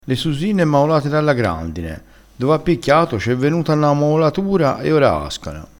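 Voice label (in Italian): "maolato", dialettale crespinese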